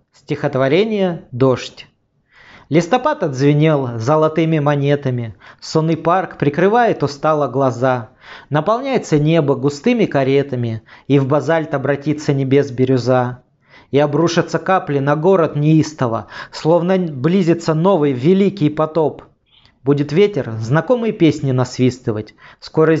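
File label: audio poems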